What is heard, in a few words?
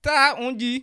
leave me alone, bassa